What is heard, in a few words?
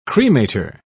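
"cremator", British English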